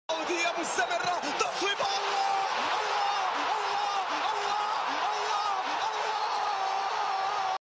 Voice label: goal arabic, live football scores